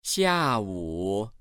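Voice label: "xia4wu3", Chinese, male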